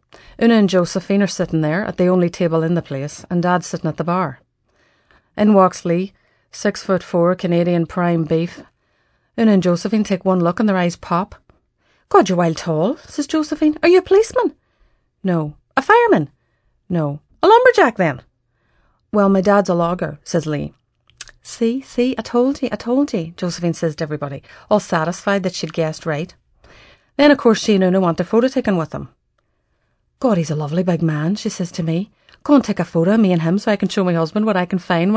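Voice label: Northern Irish, Female, Conversational; accent of ireland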